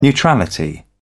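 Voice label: "neutrality", British English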